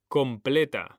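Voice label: "COMPLETA", Spanish; mekanta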